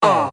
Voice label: "uh", male